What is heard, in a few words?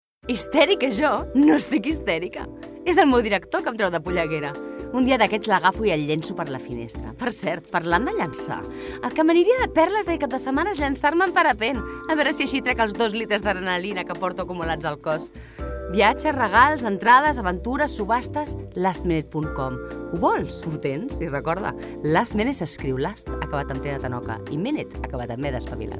female catalan event comercial